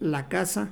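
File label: "la caza", Mexican Spanish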